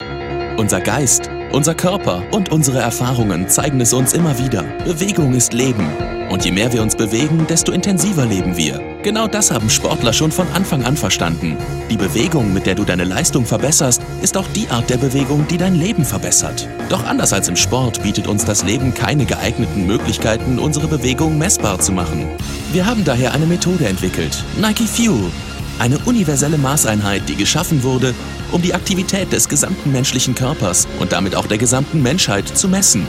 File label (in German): imagefilm; nikefuel